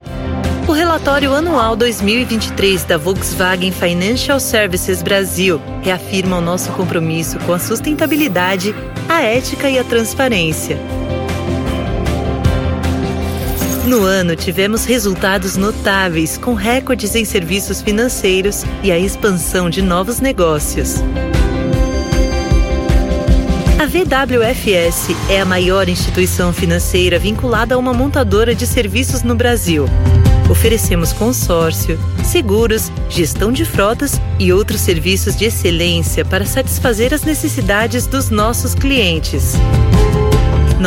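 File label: female voice, Commercial, Brazilian Portuguese; voice demos; GoLocalise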